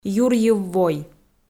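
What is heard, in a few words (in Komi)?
"юрйыв вой" Komi, female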